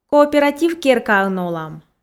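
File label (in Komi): кооператив керкаын олам, Komi, female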